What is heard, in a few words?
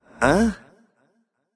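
"seriously", male; smite; voicepack; olorun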